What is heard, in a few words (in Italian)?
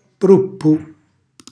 "pruppu", Calabrese; cucina calabrese; Glossario